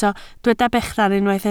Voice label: "bechan", Welsh